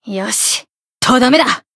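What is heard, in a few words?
finish, Japanese; kid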